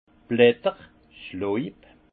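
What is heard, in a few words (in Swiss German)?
"bletter", alsace Haut-Rhin; vin; feuillage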